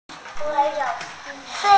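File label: "na2", Mandarin Chinese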